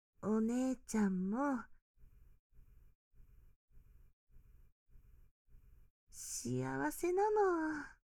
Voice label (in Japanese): 音量調整, ASMR